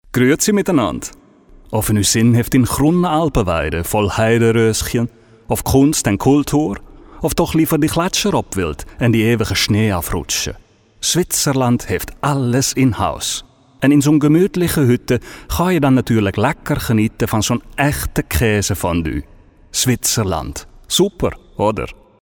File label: accent Swiss